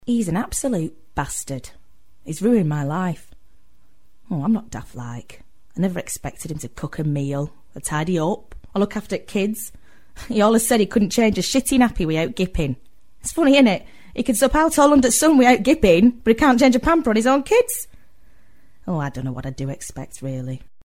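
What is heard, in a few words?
Drama Manchester Accent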